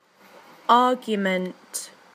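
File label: "argument", British English; PictureDictionary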